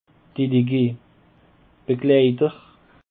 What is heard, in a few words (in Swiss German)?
"delegue", Alsace